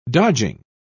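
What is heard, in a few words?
"dodging", British English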